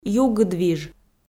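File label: "югыдвиж", Komi, female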